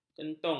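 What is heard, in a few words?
"kentung", Malay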